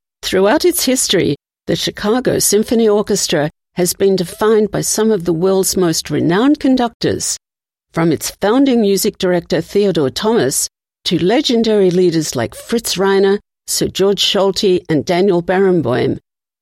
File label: Documentary; CSO Demo Reel